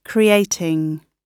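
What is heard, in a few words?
"creating", British English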